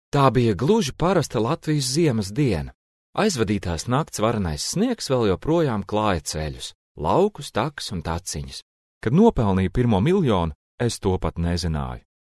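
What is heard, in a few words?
Latvian, male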